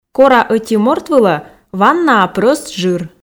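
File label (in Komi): кора ӧти морт вылӧ ваннаа прӧст жыр, Komi, female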